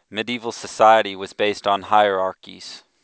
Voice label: anechoic voices